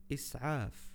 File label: ambulance, arabic words